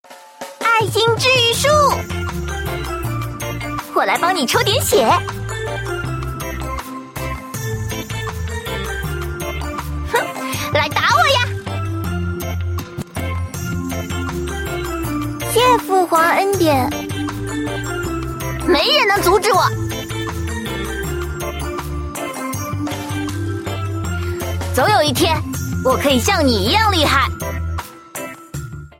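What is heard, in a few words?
shota loli, female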